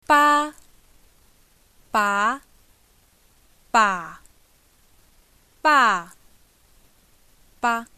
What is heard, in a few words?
tones, mandarin